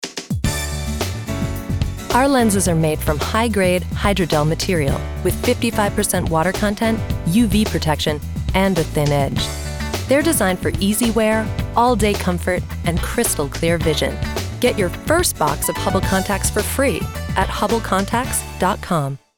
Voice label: english american promos